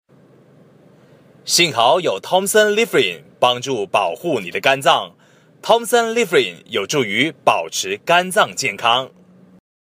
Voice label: clean read tag line

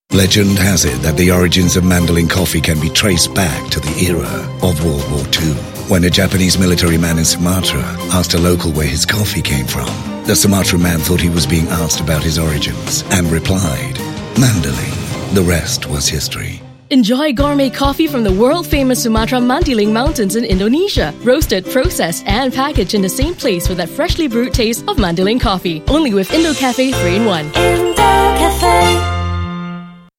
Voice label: ENG; INDOCAFE; BRANDING CAMPAIGN 2016

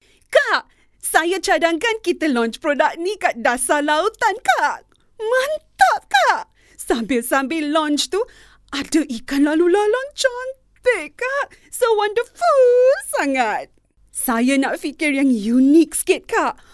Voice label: BM Conversational Excited

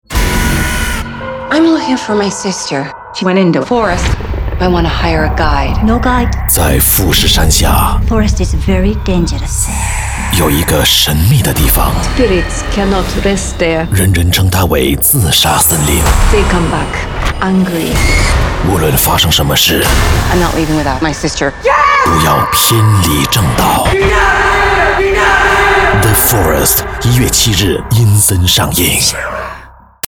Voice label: MAND; The Forest

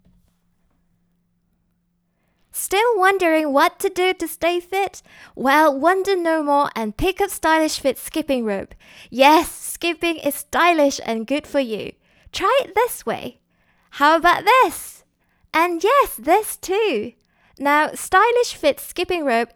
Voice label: Energetic; Stylish Fit Skipping Rope

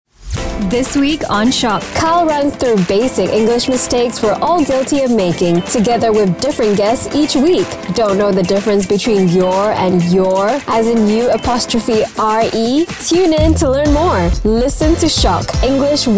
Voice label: English; MIX FM - SyokCast English 101 - Conversational/Young/Clear; Promo Syok

